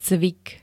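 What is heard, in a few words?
"cvik", Slovak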